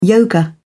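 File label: "yoga", British English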